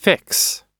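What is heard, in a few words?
"fix", English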